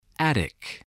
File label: "attic", english